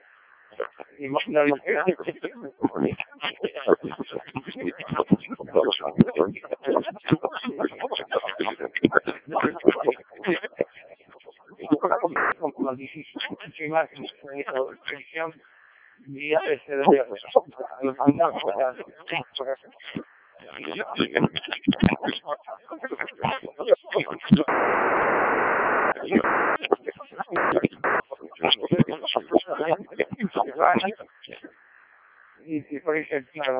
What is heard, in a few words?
decoded speech